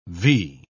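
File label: "vee", British English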